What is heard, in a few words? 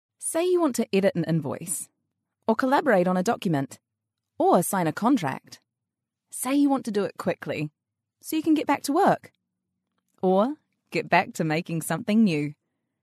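New Zealand English, female, voice over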